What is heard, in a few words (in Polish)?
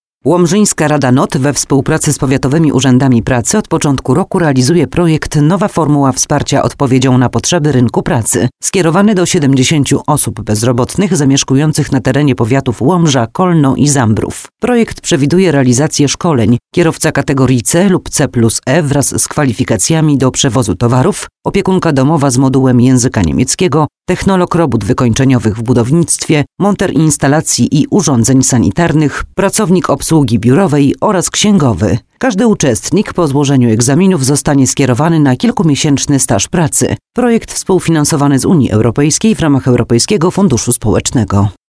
Radio Spot reklamowy; Radio Eska